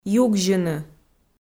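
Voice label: "югзьыны", Komi, female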